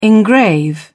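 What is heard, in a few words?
"engrave", British English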